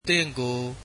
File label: you, burmese